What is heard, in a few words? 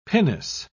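"pinnace", British English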